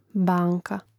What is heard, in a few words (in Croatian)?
"banka", Hrvatski